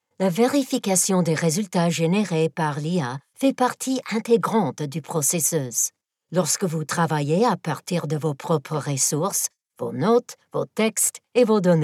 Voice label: French, voiceover